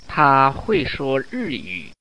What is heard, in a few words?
Mandarin Conversation